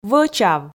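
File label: "вӧчав", Komi, female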